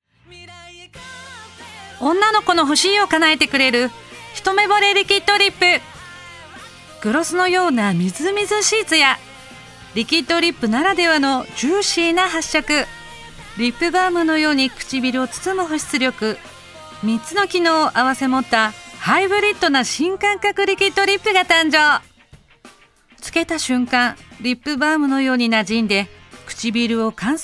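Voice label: Narration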